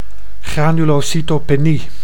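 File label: "granulocytopenie", Dutch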